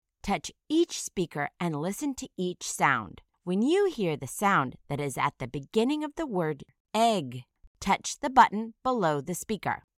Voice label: egg initial phoneme isolation